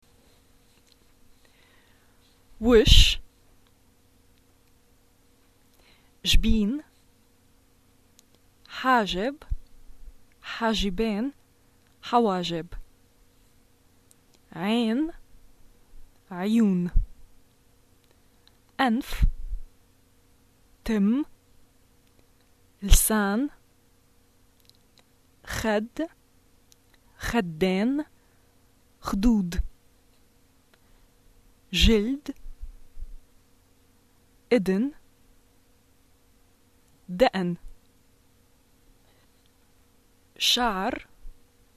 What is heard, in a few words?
face, arabic levantine